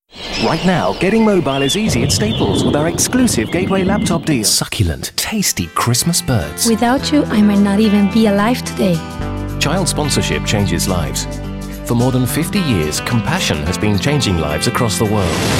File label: Adverts; Voice-over demos; TV Radio Advert